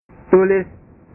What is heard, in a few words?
"tulis", bahasa